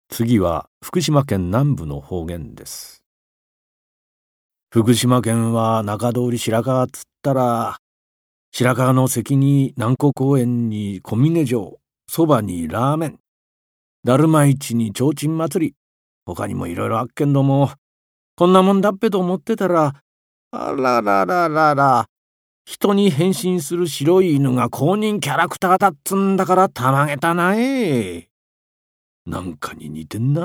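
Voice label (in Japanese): 方言, 福島弁・中通り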